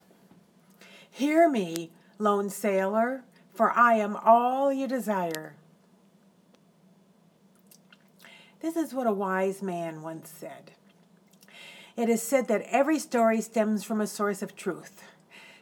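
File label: The Siren's Song, female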